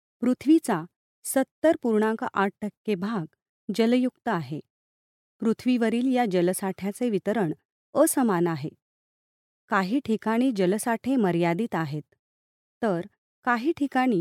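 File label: Marathi, female, eLearning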